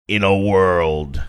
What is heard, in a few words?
saying in a world movie trailer voiceover